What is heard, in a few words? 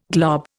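"global", Indian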